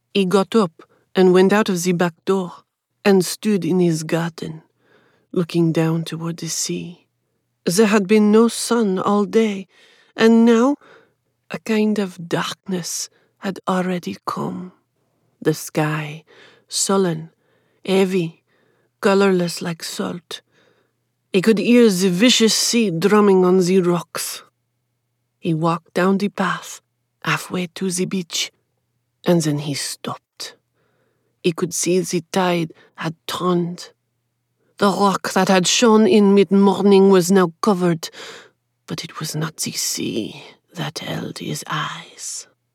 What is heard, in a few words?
Accent Demo French